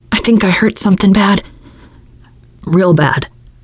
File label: going to die, teengirl, voice; player; survivor